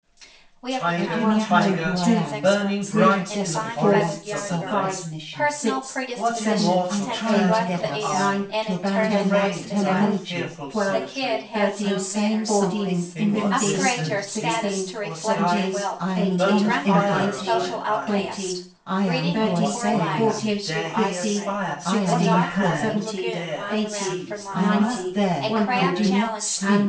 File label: mic, speech only; Separated sources